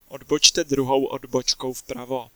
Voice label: 2nd Right, Czech male

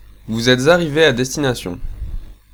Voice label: Arrive, French, male

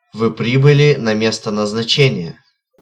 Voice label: Arrive, Russian male

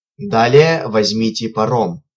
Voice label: Ah Ferry, Russian male

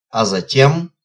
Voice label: Then, Russian, male

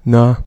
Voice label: After, Dutch, male

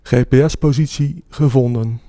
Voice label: Gps Found, Dutch, male

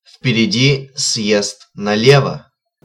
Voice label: Exit Left, Russian, male

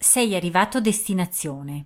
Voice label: Arrive, Italian female

